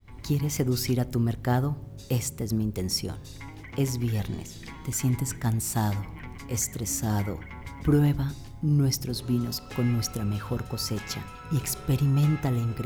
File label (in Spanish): Seductora, locutora